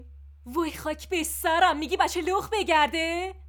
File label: narrator